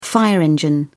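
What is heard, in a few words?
"fire engine", British English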